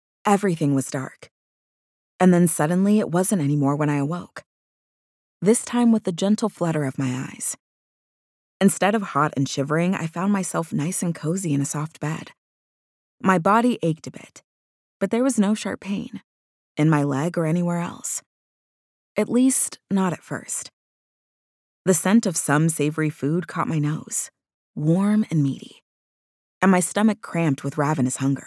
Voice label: Fantasy Romance Scottish Accent; Her Scottish Hero